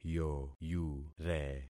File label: "yoyure", concat syllables; Affixing experiment